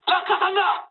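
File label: bailout mood high, speech japanese; War Thunder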